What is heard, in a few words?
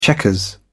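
"checkers", British English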